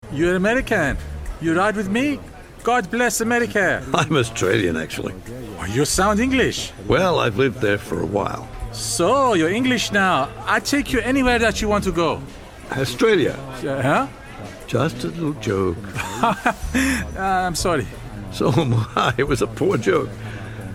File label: Iranian accent scene; George Lazenby